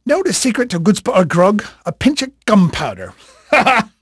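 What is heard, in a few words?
English male, voice; Pirates Of The Caribbean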